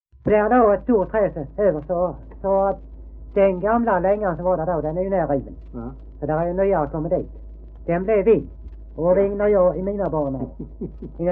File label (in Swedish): Degeberga SK, dialekter; Folkminnen; Svenska sagor